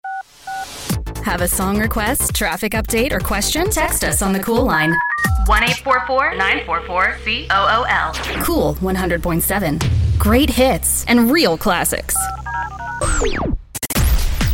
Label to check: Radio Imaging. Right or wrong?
Right